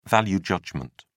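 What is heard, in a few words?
"value judgement", British English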